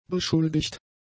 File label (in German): "beschuldigt", German; adjectives